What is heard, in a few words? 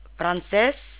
"French", Tagalog